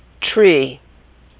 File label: "tree", pronunciation